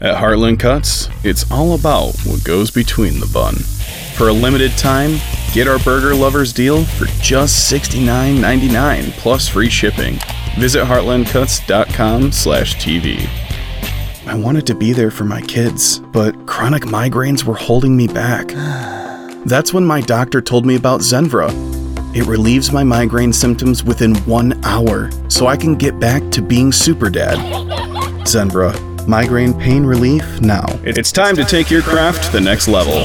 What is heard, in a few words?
Commercial